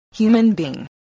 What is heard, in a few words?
"human being", voice spelling